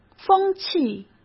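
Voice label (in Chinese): "风气", Mandarin Chinese; hsk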